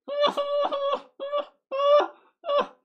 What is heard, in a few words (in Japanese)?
ダメージボイス; ポーズリクエストに応える回